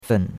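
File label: "fen", Chinese, third tone; Cours-de-Chinois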